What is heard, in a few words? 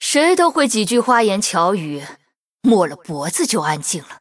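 Taunt, Chinese; Irelia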